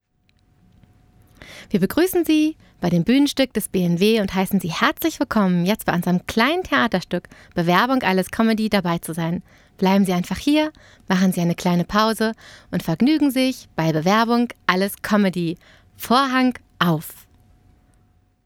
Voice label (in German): Moderation